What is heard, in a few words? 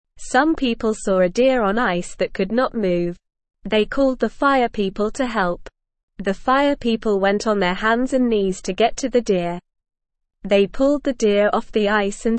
English Newsroom Beginner NORMAL Reading; Fire People Rescue Deer Stuck on Ice